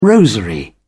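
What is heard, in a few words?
"rosary", British English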